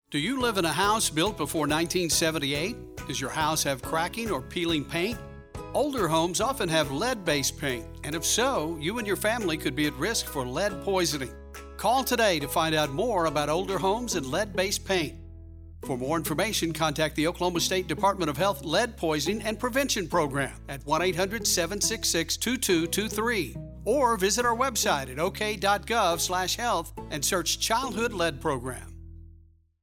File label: PSA English; Get the Lead Out